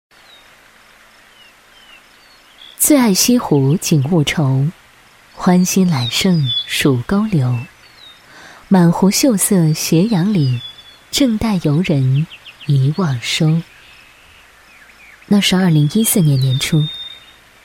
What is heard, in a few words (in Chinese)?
女4号 纪录片 讲述感 自然, 国语女声配音; 优雅; 花里花外